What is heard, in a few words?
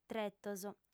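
"tretos", female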